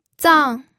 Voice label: "zang4", pinyin